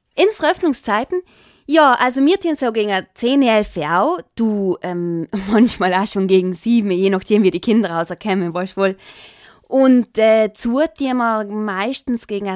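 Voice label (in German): Dialekt Südtirolerisch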